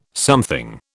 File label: "something", British